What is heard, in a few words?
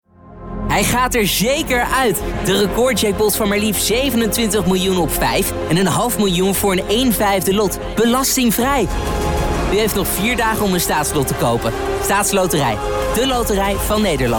VOICEOVER